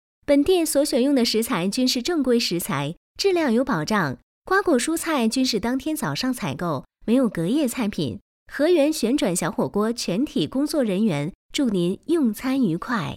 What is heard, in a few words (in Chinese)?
女 温馨播报; 和源小火锅